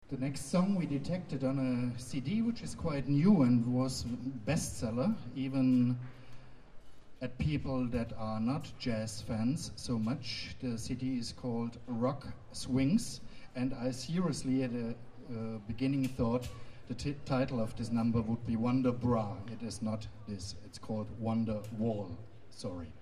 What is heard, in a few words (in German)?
Ansage